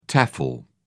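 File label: "tefl", British English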